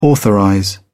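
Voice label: "authorize", British English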